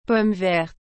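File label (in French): "pomme verte", French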